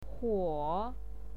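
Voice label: "huo", Mandarin Chinese, third tone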